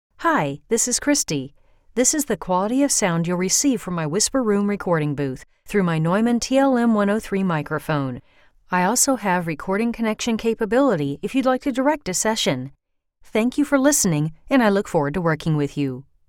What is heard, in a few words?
Studio Sample